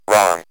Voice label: wrong, Speak & Math Speech